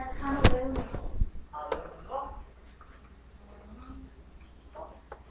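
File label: "lick", spoken word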